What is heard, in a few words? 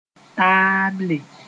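"taable", Mooré; table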